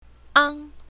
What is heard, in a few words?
"ang1", pinyin, Mandarin